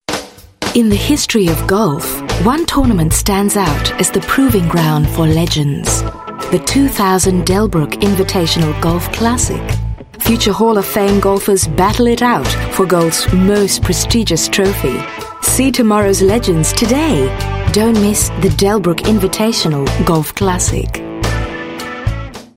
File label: Asian English Golf